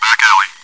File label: chitchat; svencoop